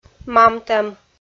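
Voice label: "мамтӧм", Komi, female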